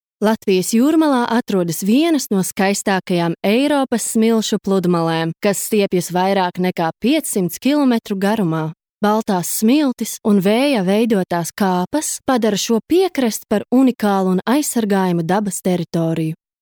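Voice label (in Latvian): Infografika Latvian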